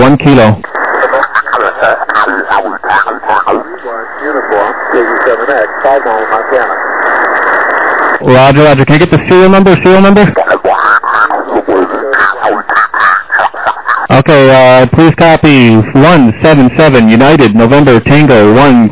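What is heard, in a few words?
upper sideband 21MHz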